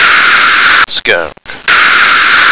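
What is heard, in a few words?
"skunk", American English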